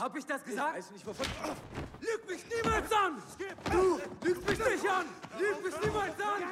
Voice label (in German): aggressiv; Synchron; Regie: Marius Clarén